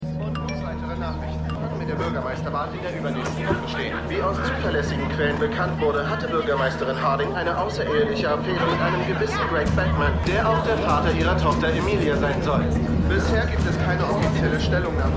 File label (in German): TV Nachrichtensprecher; Stimmproben; Falling Water